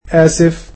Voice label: "Sorry", egyptian